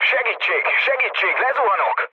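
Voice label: Hungarian aircraft destroyed mood low, speech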